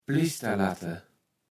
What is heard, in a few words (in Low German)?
"Plisterlatte", kaevels platt